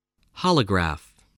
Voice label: "holograph", English, spelling